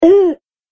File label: Cartoon Game Voice "Oooo"; Cartoon Voice Sound Effects